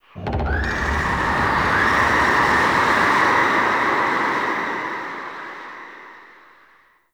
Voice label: Sentient Vessel Delay Pain; Warframe; Tau

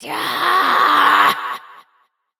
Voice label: Frustration; Battle Barks; Entrati Doppelganger; Evil Twin D; Warframe

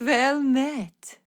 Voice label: Ost Fem en; Cetus

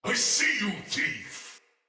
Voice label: See Player Shout, Battle; Sinecure Soldier

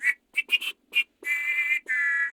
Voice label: Patrol, Male

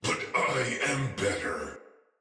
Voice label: Damage Low Chance, Barks Battle Soldier; Sinecure Soldier

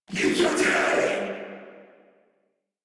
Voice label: Attack Sniper, en; Warframe; Corpus Amalgam Sniper

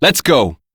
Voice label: Operator Voice; Warframe; Operator Mission; Battle Begins

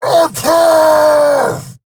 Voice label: Attack, en

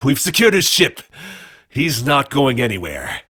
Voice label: Crew Male en; JadeShadowsQuest; Mission Four

